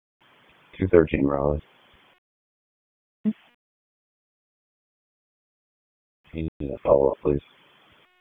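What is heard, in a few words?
Raleigh Police Northeast District Dispatch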